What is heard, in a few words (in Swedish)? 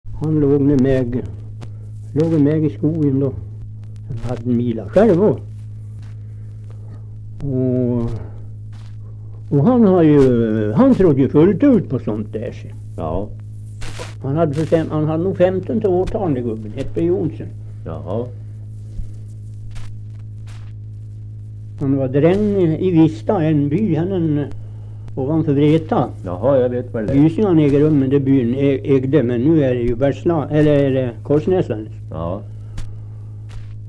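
Österfärnebo Gästrikland, Svenska sagor, dialekter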